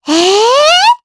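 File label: Deny, Japanese; Luna; kingsraid; jp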